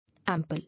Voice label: "Ample", English